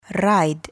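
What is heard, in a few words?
"ride", English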